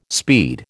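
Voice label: "speed", American English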